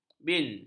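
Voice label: "bin", Malay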